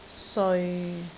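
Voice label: "seoi6", Cantonese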